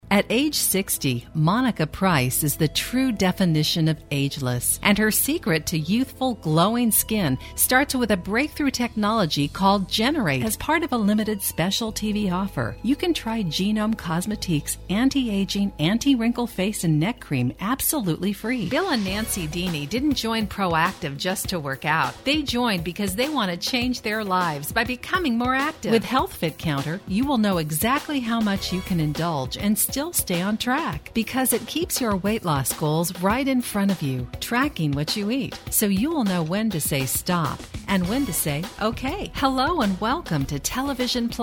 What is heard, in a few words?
infomercials